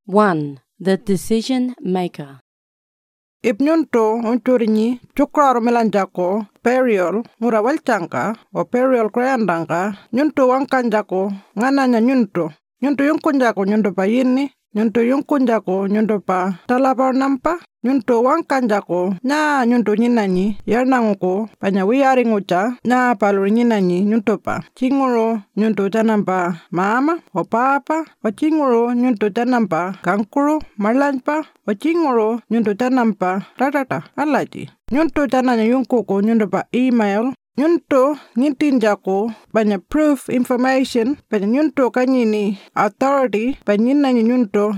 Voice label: Burial Notification Pintupi; The Decision Maker; Pintupi Luritja; burial and cremation; information recorded in 18 aboriginal languages; Burial and Cremation Act 2022